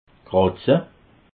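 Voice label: "kratze", Alsatian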